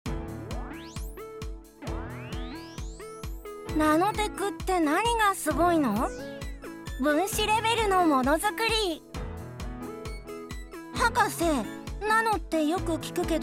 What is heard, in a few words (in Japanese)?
マスコットキャラ＋説明役女性; VOICE SAMPLE